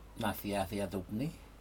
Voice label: nadi nadiat upni, mid morning, Aneityum

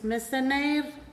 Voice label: "september", Pingelapese

